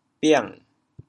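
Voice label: "biang3", Teochew, chaoyang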